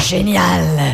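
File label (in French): "génial", French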